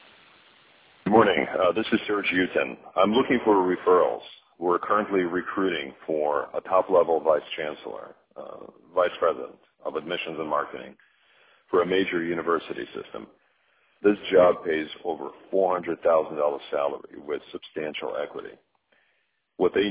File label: headhunter voicemail; admissions